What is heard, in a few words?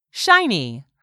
"SHINY", english; 3000 words